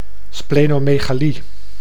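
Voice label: "splenomegalie", Dutch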